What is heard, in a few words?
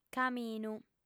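"caminu", female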